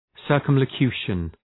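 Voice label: "circumlocution", English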